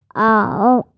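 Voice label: "ao3", Mandarin Chinese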